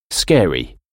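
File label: "scary", English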